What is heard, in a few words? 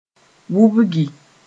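"wubgi", Mooré; go mouldy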